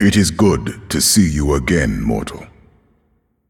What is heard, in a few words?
Male Angel Bark; Tyrael